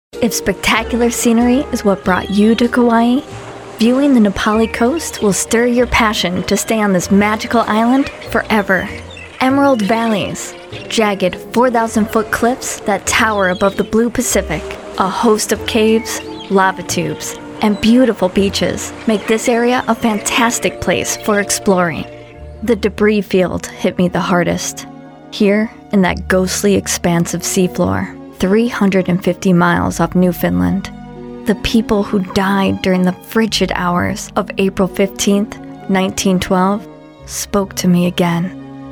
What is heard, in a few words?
NARRATION VO